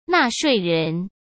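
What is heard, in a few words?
"纳税人", Mandarin Chinese; hsk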